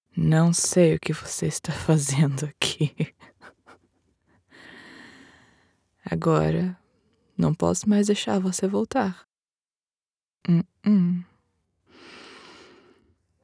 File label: error page 404 crazy female brazilian portuguese voiceover